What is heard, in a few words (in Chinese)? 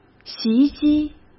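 "袭击", Mandarin Chinese; hsk; chinese-flashcards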